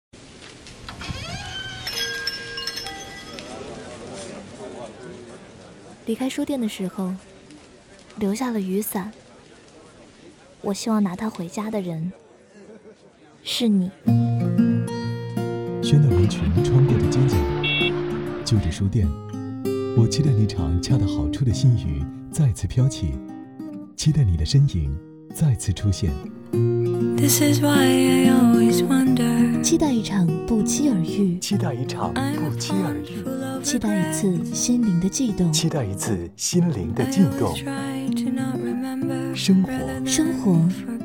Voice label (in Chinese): 女 广告 时尚魅力